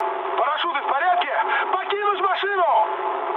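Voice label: Russian bailout mood med, speech; War Thunder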